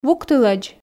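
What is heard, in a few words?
"вуктылӧдз", Komi, female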